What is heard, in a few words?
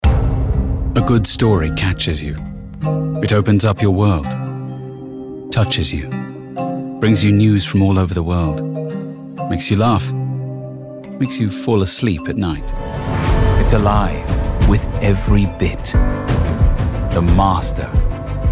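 Commercial UK; Foreign Languages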